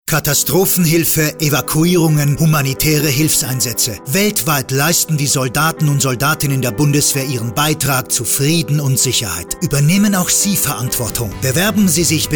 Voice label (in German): Werbung Dynamisch